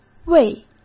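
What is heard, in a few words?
"胃", Mandarin Chinese; hsk; chinese-flashcards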